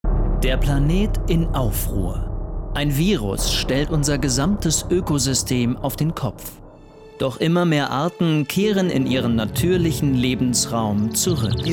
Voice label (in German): Trailer Pauschaltourist